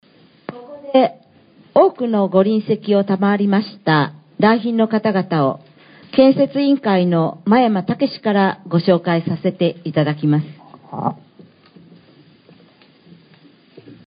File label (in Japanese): 司会; rakuseishiki